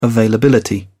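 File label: "availability", English, British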